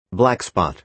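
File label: "blackspot", American English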